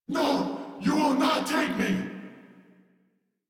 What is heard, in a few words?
Found, Battle Barks; Warframe; Corpus VIP B